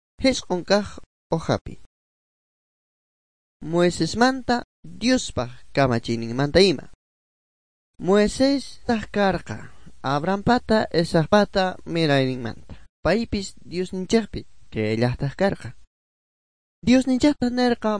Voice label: good news quechua bolivian sucre; bible